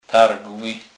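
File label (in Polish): "targly", Kurpie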